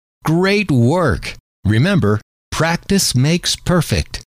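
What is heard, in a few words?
great Work Remember Practice Makes Perfect, male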